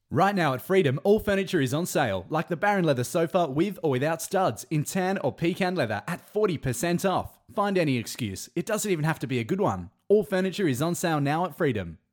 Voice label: Hard Sell DRY